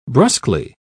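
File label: "brusquely", English, British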